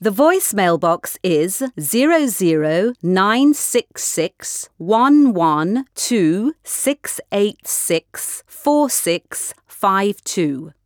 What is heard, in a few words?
Voice Mail, English Recording; SPA Call Centre